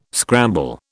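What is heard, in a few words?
"scramble", British English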